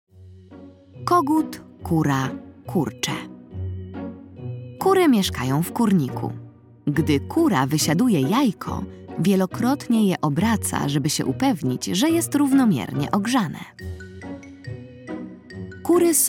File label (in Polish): narracja; czasopismo mis; lato; kogut kura kurcze ciekawostka